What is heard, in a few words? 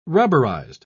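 "rubberised", British English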